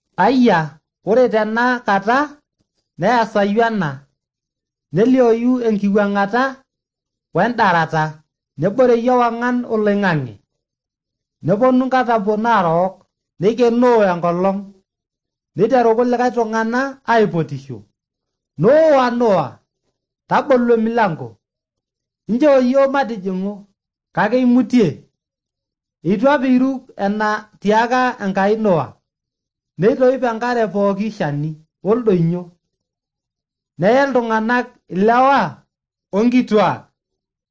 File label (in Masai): maasai bsv nt drama; good news; bible